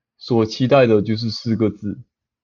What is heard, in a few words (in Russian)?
Голоса, Тайваньский; 去捍衛民主自由的生活方式